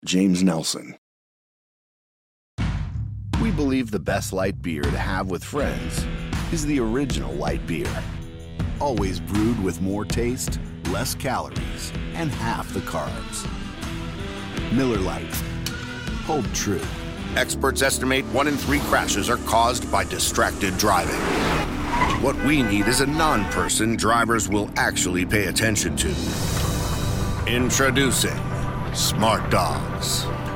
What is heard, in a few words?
commercial; Innovative LA